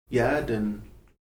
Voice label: rattle, Heiltsuk

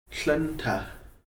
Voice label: to blow the nose, Heiltsuk

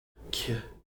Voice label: "k", Heiltsuk

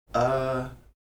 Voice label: "á", Heiltsuk

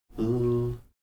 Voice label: "ḷ" long L, Heiltsuk

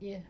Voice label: glottalized y

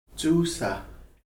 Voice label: to dig, Heiltsuk

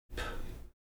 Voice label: "p", Heiltsuk

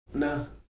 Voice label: "n̓", Heiltsuk

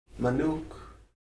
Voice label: "one", Heiltsuk

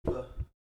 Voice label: "b", Heiltsuk

